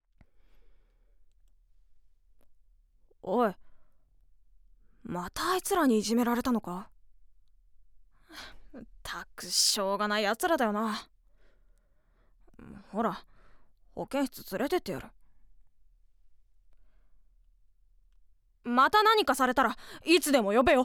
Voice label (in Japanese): Syonen